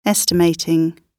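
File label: "estimating", British English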